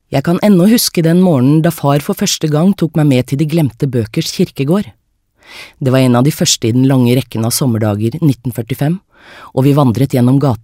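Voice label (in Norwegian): stemmeprøve lydbok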